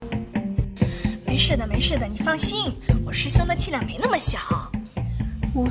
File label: drama